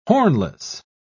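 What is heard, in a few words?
"hornless", British English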